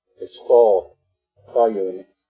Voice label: "tóóyouní'", Arapaho; Arapaho Language Project; RMNP